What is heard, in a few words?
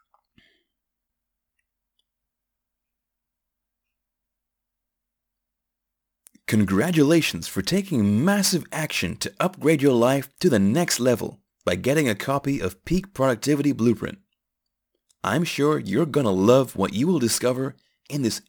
Voiceover; Upsell Script; peak productivity video upgrade